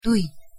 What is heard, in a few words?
pronunciation nl "doei"; język niderlandzki podstawowe zwroty